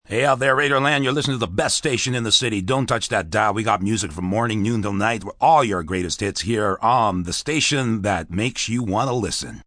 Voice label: Hey Radioland M, Broadcast, announcer, breakaway, radio station; female; VoiceBox